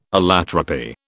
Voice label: "allotropy", British